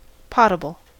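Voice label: "potable", US English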